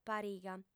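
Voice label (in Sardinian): "pariga", female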